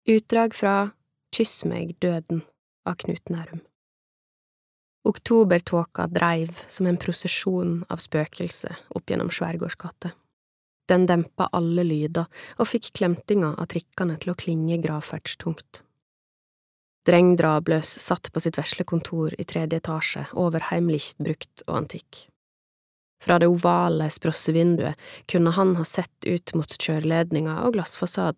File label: no lydbok, kvinne, norsk